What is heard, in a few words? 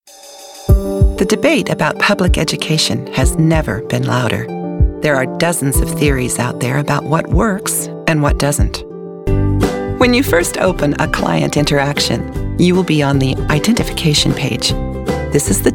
USA